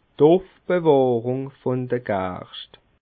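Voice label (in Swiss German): "ufbewahrung", Alsatian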